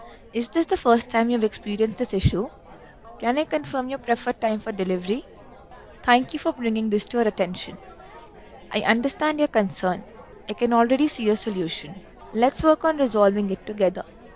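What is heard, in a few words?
Before, Original speech, Indian accent; Krisp; Mandovi (IN accent)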